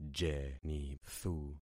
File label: "jenithu", concat syllables; Affixing experiment